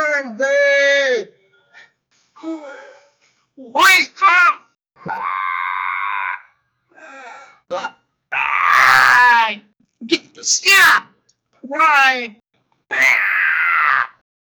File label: maniacal and taunting voice; character voice